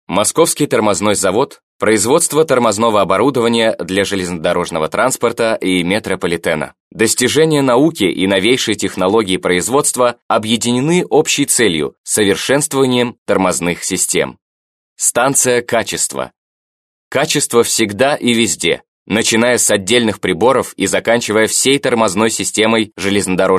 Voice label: russian male